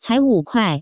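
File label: cai wu kuai, Taiwan Mandarin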